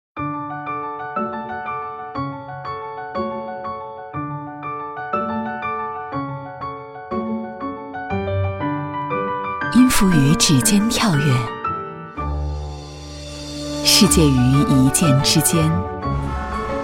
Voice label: 女 广告; 京东

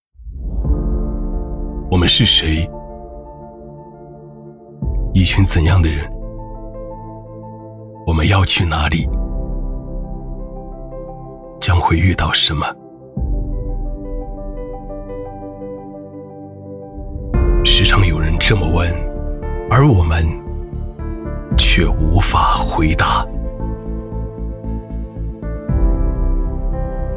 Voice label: 男 品质走心; 在出征

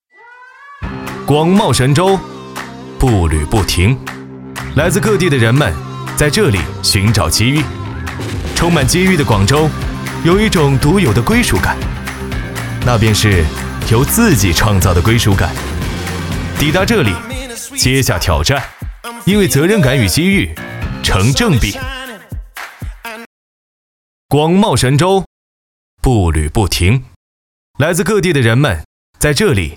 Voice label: 男 青春激情; 广袤神州-步履不停

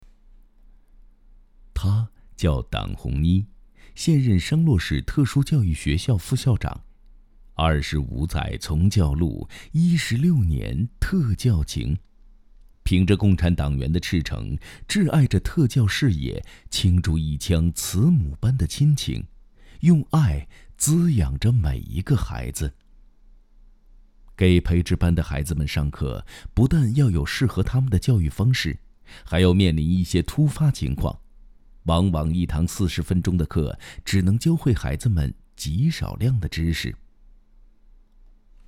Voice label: 男 人物讲述类; 无声的希望

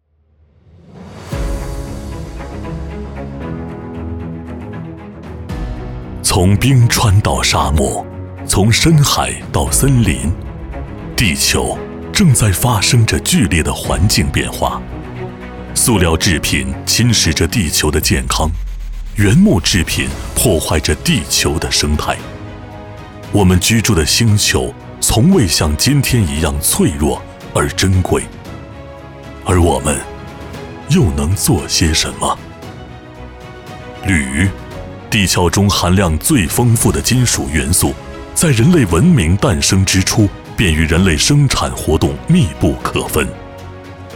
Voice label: 男 专题 走心大起; 铝元素

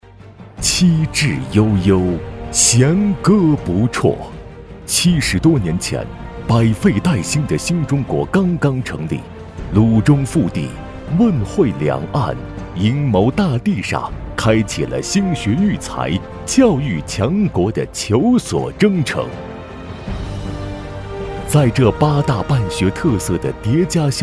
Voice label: 男 宣传片 校庆

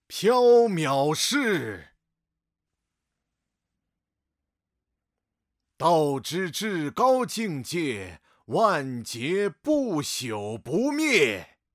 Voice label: 角色模仿; 镇元子

